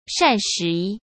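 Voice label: "膳食", Mandarin Chinese; hsk; chinese-flashcards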